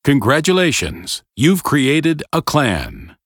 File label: tutorial voice, pl; lineage2